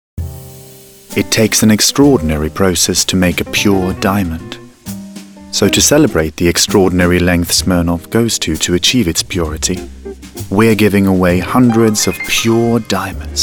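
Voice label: English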